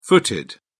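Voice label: "footed", British English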